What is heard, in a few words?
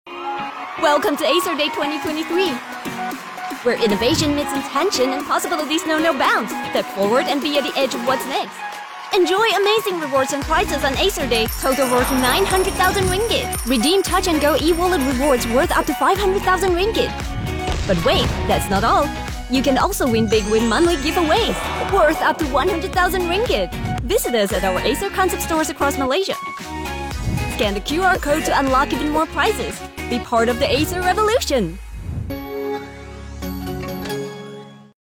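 ENGLISH - COMMERCIAL Cheerful, Bubbly, Excited; ENGLISH - COMMERCIAL (Acer) Cheerful, Bubbly, Excited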